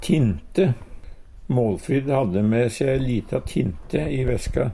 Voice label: tinte, Numedalsmål; Substantiv hokjønn; Hushald, mat, drikke

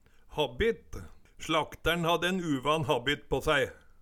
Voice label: habbitt, Numedalsmål

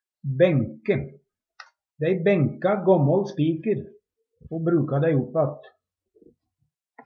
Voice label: "benke", Numedalsmål

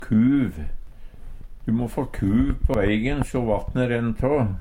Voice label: kuv, Numedalsmål

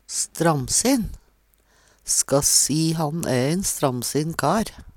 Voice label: stramsin, Numedalsmål; Adjektiv

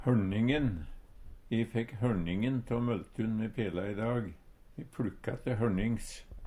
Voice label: horning, Numedalsmål; E fekk hørningen tå møltun me pela i dag. Me pLukka te hørnings.

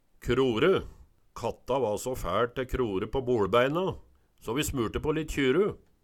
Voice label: krore, Numedalsmål

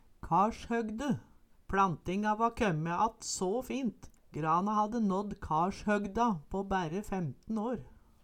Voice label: karshogde, Numedalsmål

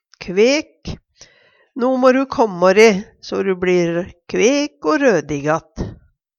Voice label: "kvek", Numedalsmål; Adjektiv

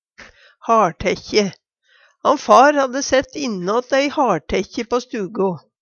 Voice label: haLtaekkje, Numedalsmål; Han far hadde sætt innåt ei haLtækkje på stugo/u.

